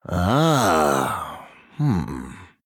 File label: Male Troll Thinking